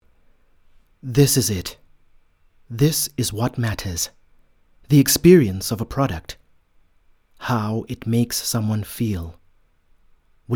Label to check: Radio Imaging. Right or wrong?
Wrong